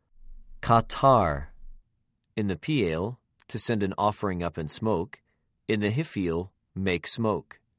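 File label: "קָטַר", Hebrew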